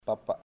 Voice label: my father, chamacoco

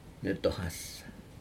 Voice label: "netohas", may, Aneityum

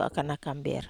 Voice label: "akanak amber", Wamesa

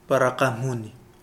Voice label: hidden, Kapingamarangi

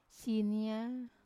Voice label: "sinia" mother, Wamesa

